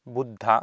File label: "buddha", Sanskrit